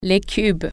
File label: "blocks", French